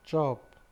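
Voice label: "chop", Nigerian Pidgin, male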